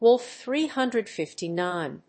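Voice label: "Wolf 359", English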